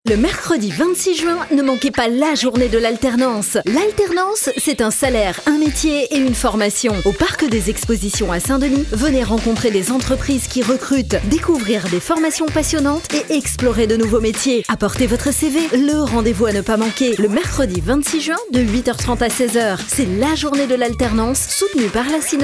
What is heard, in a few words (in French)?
Spot radio